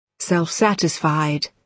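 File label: "self satisfied", British English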